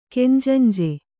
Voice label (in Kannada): "ಕೆಂಜಂಜೆ", Kannada; kenjanje; noun